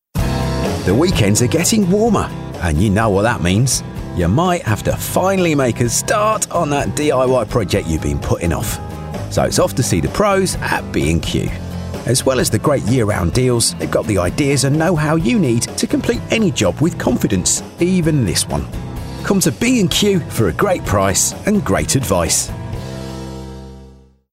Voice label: Commercial; BQ